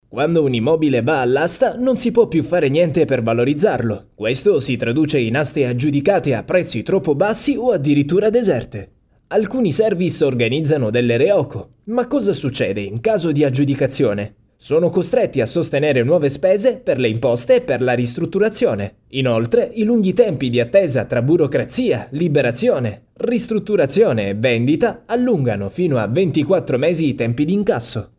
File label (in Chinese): 意大利语男-干音